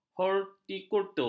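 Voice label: "hortikultur", Malay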